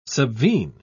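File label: "subvene", British English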